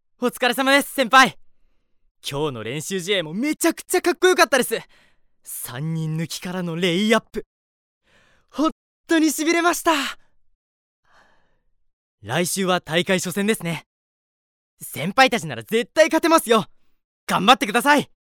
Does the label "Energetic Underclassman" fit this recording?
Yes